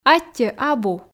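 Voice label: "аттьӧ абу" Komi, female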